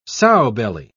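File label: "sowbelly", English, British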